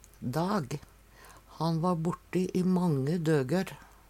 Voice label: "dag", Numedalsmål

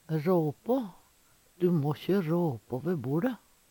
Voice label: rapa, Numedalsmål; Du må`kje råpå ve boLe!; Verb; Veggli

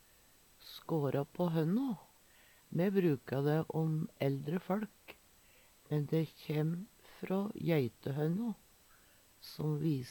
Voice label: skara pa honno, Numedalsmål; skårå på hønno

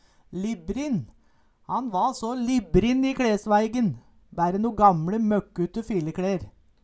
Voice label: libbrin, Numedalsmål; Librenn er og brukt.

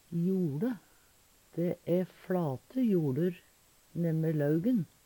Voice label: "joLe", Numedalsmål

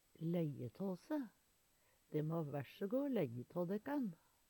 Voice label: "lejje tå se", Numedalsmål

